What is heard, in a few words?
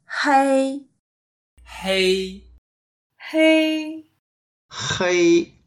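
"hei1", Mandarin Chinese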